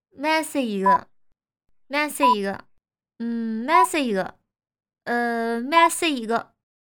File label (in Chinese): 上海方言 女 上海话 年轻; 方言配音